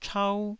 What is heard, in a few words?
"chau1", Taiwanese